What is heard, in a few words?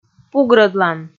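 "пугрӧдлан", Komi, female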